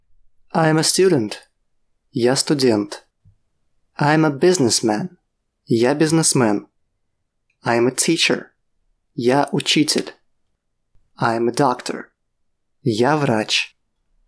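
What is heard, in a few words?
Russian I am a student teacher businessman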